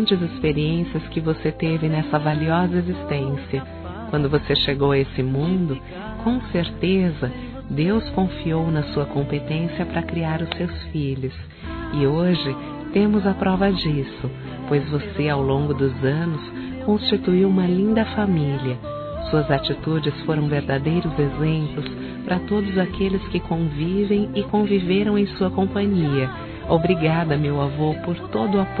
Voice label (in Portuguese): ANIV. AVÔ F